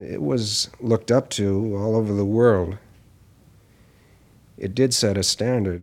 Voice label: Announcer Process; Tony Schwartz Collection